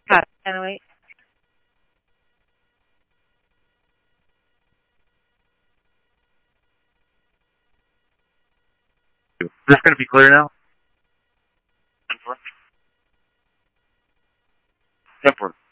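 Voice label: Simulcast police department, scanner audio trunk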